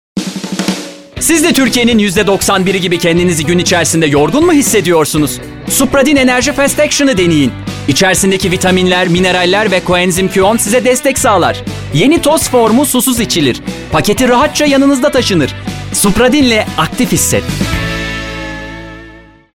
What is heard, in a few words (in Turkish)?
reklam; supradyn